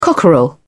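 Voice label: "cockerel", British English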